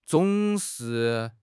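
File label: "zong3 shi4", Chinese; HSK; HSK3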